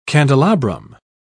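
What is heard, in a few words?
"candelabrum", British English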